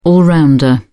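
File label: "all rounder", English, British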